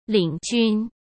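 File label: "领军", Mandarin Chinese; chinese flashcards; hsk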